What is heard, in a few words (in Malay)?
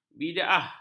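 "bidaah", Malay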